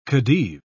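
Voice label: "khedive", British English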